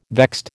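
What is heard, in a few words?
"vexed", American English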